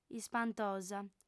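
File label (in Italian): "ispantosa", lingua sarda, fem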